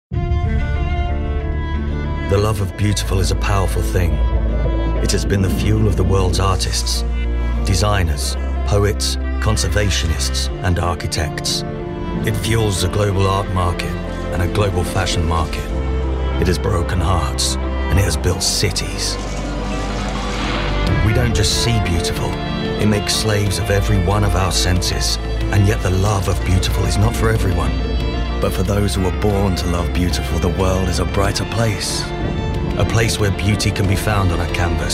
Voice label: Commercial

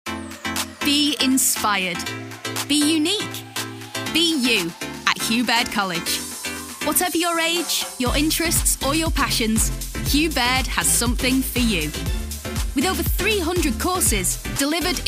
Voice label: Commercial

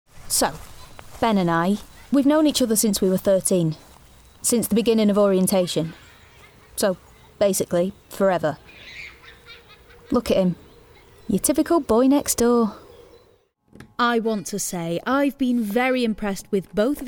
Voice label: audio drama

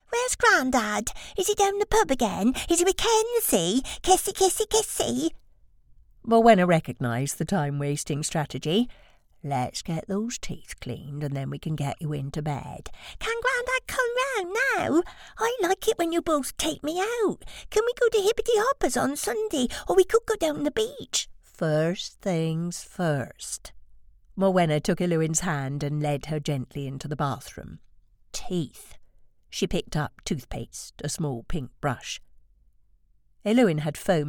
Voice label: audiobook

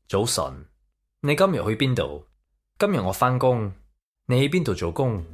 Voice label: Basic Cantonese

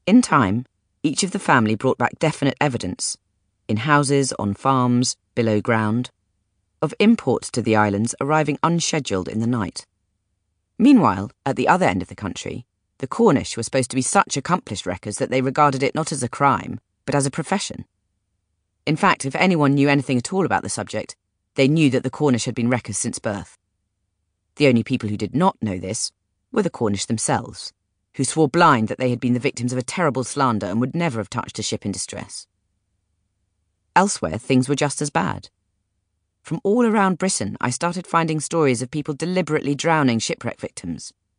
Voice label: Audiobook British

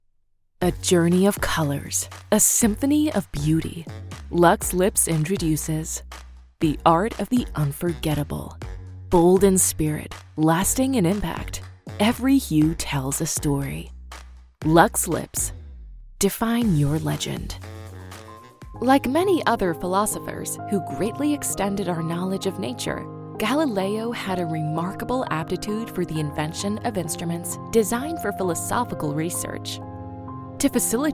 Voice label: American Commercial

